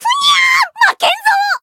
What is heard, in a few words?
encount fear, jp; otoha